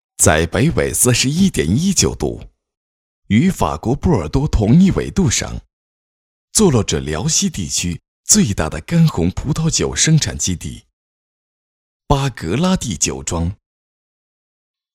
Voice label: 男 广告 红酒干声

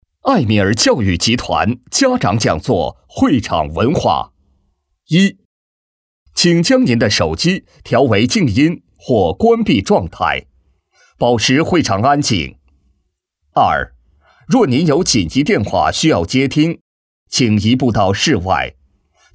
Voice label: 男 晚会开场 会场提示音

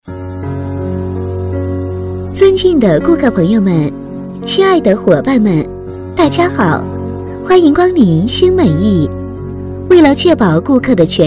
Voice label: 女 课件 店内广播温馨提示

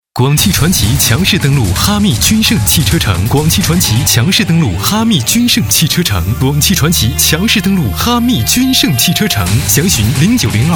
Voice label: 男 广告 电台; 广汽传祺电台

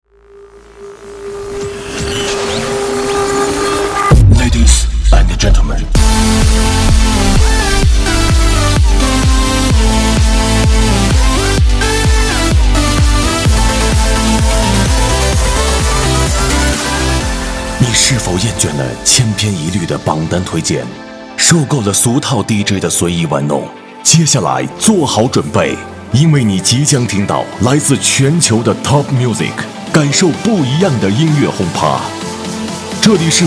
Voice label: 男 片花 音乐节目

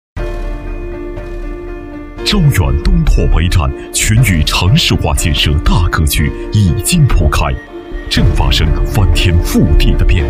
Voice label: 男 专题 房地产; 华盈

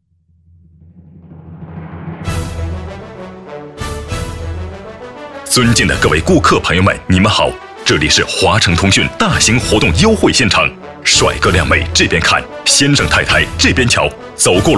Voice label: 男 促销

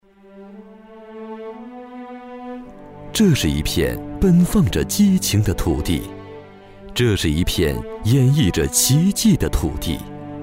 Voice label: 男97-专题-深情唯美

